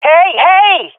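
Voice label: taking fire friendly, swat female; vo; Counter Strike Model Pack; CS:GO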